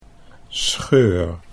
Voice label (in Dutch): "scheur", Dutch